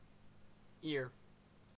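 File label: "ear", Canadian English; pronunciation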